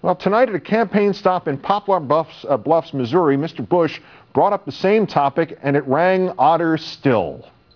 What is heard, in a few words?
Announcer